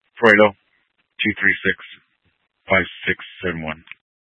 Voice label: Mesa Police Department Dispatch; TOPAZ Regional Wireless Cooperative (TRWC) - Mesa Simulcast; 4 Superstition Dispatch